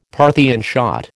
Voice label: "Parthian shot", American

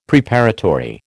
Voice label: "Preparatory", American

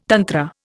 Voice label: "tantra", Indian

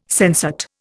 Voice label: "sensate", Indian

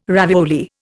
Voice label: "ravioli", Indian

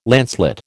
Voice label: "lancelet", American

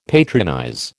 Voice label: "patronize", American

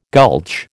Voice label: "gulch", American

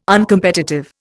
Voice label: "Uncompetitive", Indian

